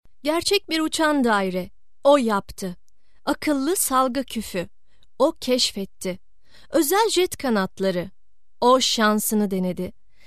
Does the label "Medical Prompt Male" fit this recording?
No